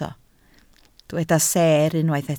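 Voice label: "seer" Welsh, spoken word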